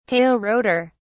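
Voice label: "tail rotor", British English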